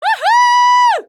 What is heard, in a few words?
cheer, citizen voice